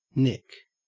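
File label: "nick", Australian English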